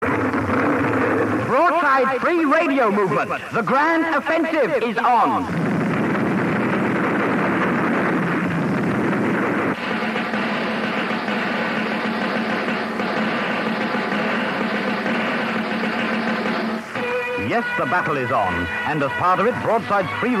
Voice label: petition promo; Broadside Free Radio Movement

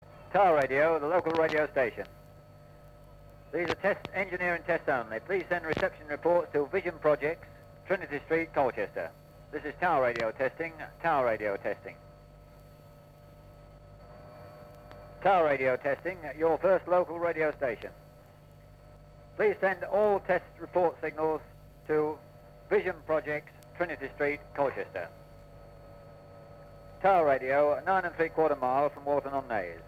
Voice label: test transmission; Tower Radio

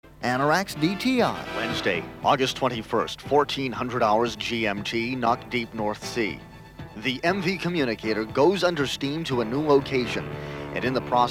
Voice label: spoof commercial; Anoraks DTI

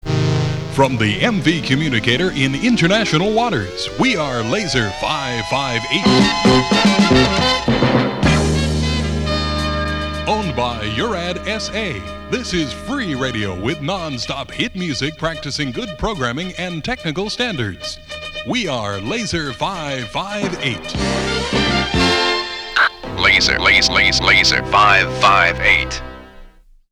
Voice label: daily opening announcement; Laser 558

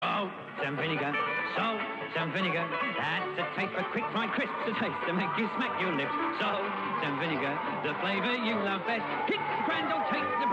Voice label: Crisps advert; R355; Smiths

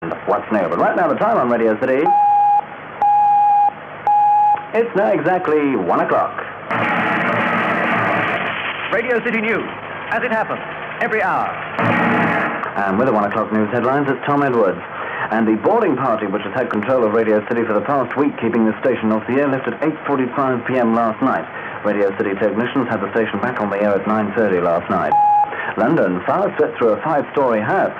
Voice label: News announcing the boarding party had left; Radio City

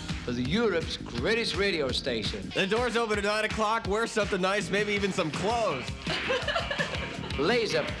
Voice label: 1st Birthday Party promo; Laser 558; Peter Stringfellow

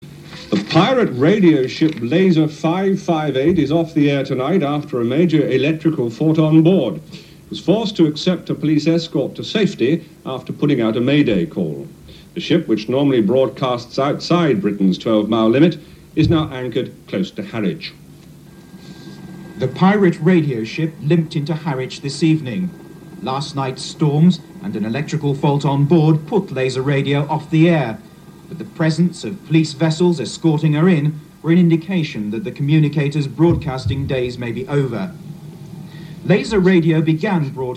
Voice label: news; ITN; Laser off air

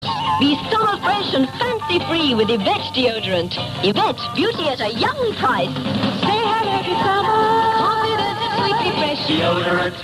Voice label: deodorant advert; Evette

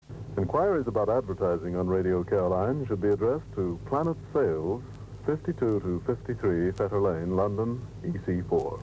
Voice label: advertising enquiries announcement; Radio Caroline 1964

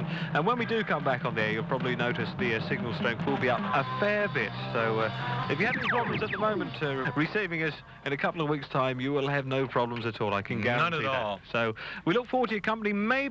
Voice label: goodbye and keep tuning around announcement; Laser 730

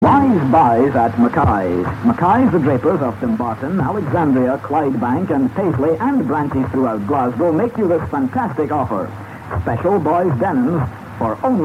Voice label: Drapers advert; R Scotland; McKays

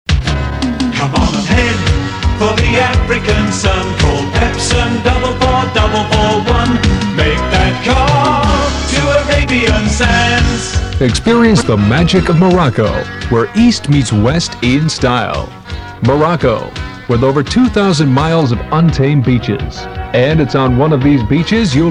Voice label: commercial; Arabian Sands

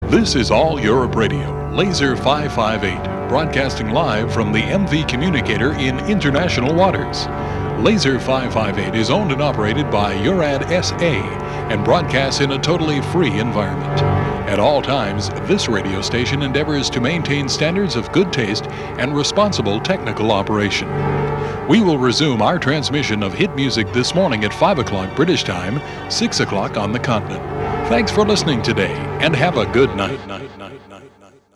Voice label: daily closedown announcement; Laser 558

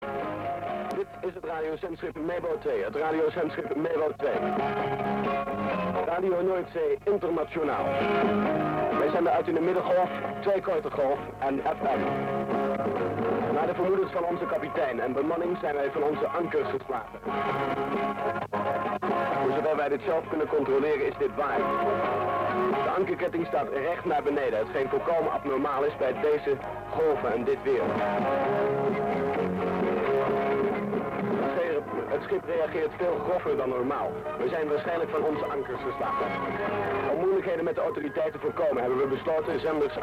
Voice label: Drifting announcement Dutch; RNI

